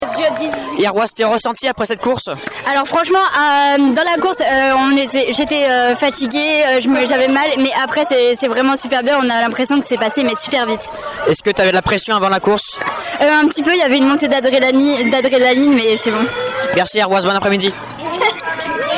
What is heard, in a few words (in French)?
interview; iroise